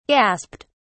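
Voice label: "gasped", British English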